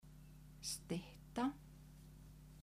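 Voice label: "stytta", Icelandic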